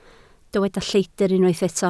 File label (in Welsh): "lleidr", Welsh